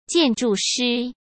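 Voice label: "建筑师", Mandarin Chinese; chinese flashcards; hsk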